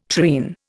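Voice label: "treen", Indian English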